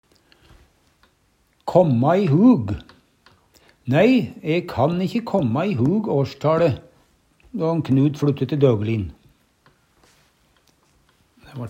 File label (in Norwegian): kåmmå i hug, Numedalsmål; hugse på; Uttrykk